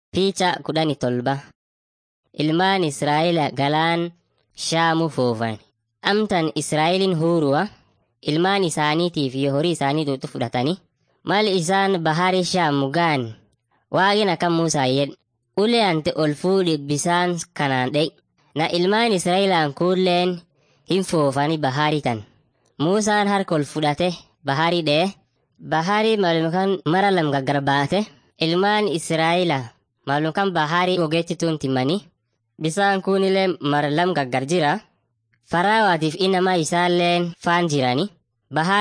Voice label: afan munyoyaya; mighty men of god